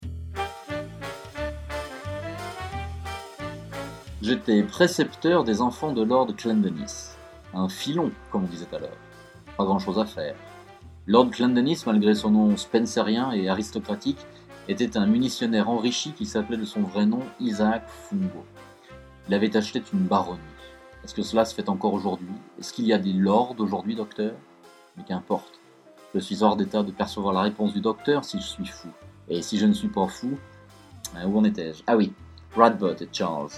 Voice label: fr